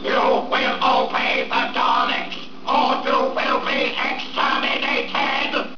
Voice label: Dalek Voice; scifi; star wars; mobs scifi